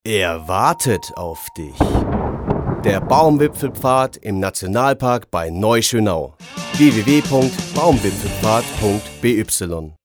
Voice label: Werbung Reminder; Erlebnis Akademie

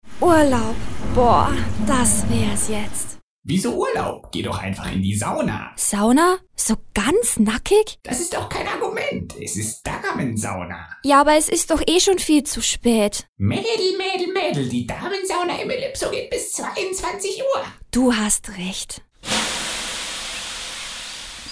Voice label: Werbung; Elypso

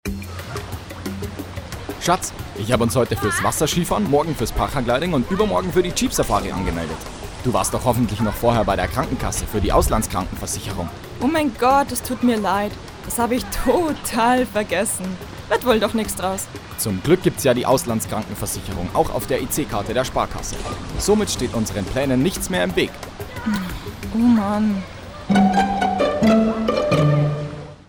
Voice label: Werbung Sparkasse

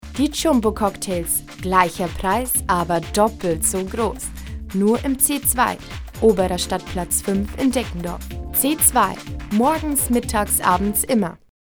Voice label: Werbung Reminder

